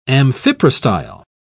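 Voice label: "amphiprostyle", British English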